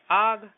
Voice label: fire, marathi